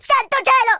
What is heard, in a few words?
incoming, Italian